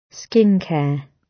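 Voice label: "skincare" British; Business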